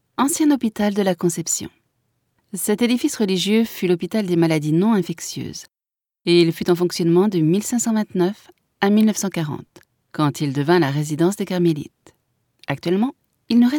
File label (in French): audioguia, frances; audioguia baeza